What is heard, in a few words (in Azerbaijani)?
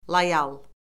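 "loyal", Azerbaijani; Orfoqrafiya Lüğəti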